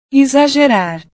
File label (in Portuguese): "exagerar", portugues